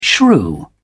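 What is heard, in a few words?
"shrew", British English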